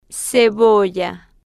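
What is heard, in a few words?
"cebolla" Spanish, female, slow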